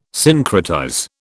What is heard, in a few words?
"syncretize", British